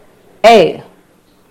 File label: "air"